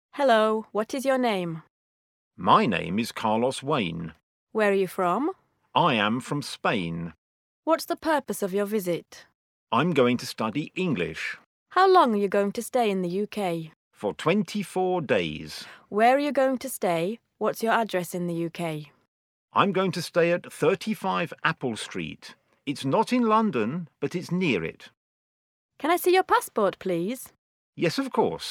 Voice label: dialog

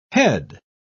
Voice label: "head", English

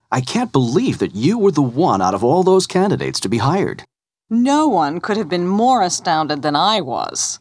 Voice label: dialog

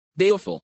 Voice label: "baleful", English

